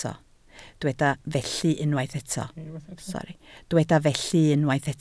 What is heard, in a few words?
"felly", Welsh